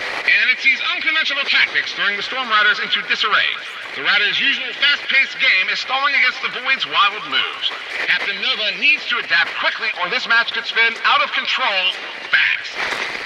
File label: Transmission Echoes